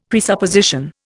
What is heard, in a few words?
"presupposition", Indian; Dictionary